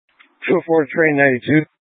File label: Light Rail Emergency, scanner